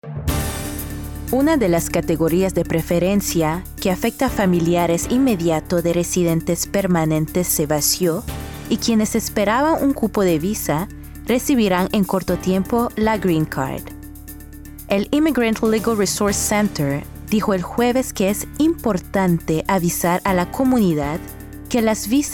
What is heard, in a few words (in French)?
demo voix espagnol